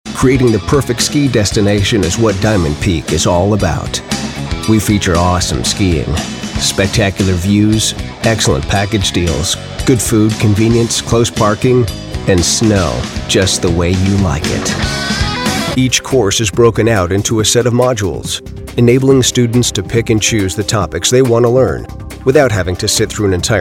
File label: english us m commercial, voice demos